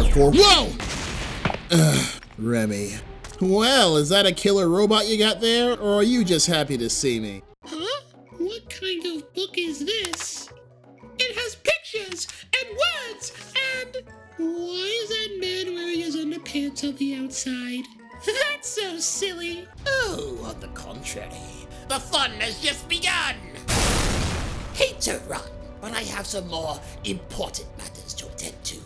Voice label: Character Reel